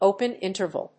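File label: "open interval", English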